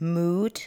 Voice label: "mood", english